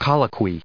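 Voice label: "colloquy", US English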